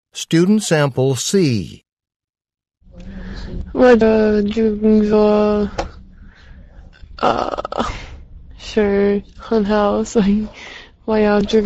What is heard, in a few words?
chinese conversation